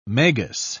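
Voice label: "magus", British English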